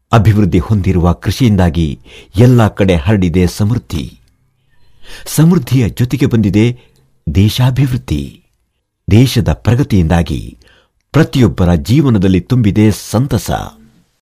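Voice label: Kannada male Sample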